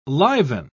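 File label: "liven", British English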